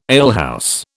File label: "alehouse", British